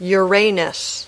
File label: "Uranus", English